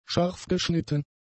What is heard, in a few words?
"scharfgeschnitten", german; adjectives